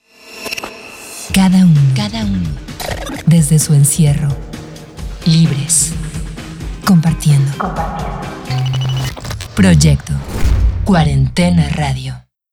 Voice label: RADIO ID